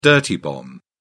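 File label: "dirty bomb", British English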